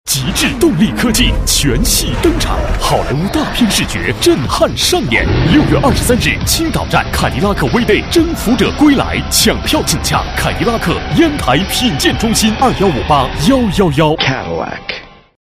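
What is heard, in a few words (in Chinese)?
广告动感时尚; 凯迪拉克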